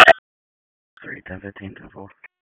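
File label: Sheriff's Office Dispatch 1, p25; wake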